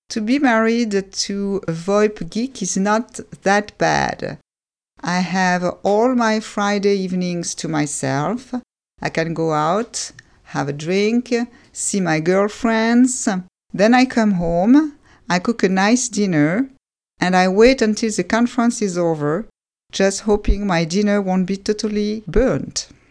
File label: English original uncompressed wave file